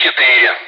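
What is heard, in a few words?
Speech, Russian, air traffic control; Digits; DCS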